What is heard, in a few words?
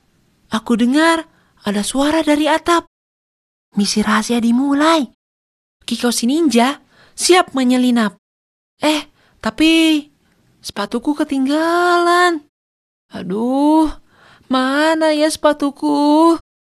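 CHARACTER, pre-teen – teenagers fun character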